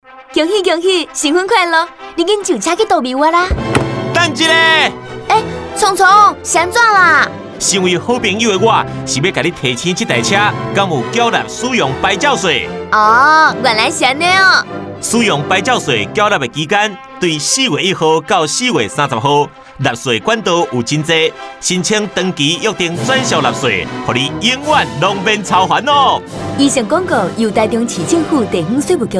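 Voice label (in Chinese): 使用牌照稅開徵廣播廣告 台語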